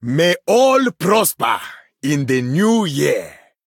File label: Male Troll, HAPPY NEW YEAR; en